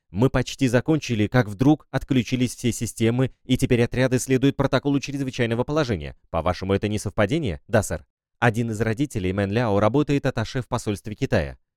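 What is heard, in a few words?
Russian, Lithuanian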